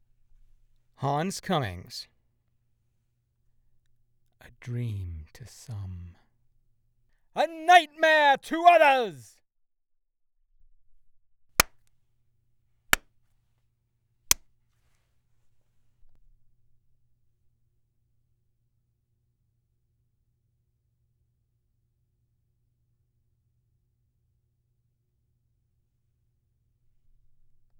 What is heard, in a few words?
Raw Studio